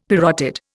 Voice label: "parotid", Indian; Dictionary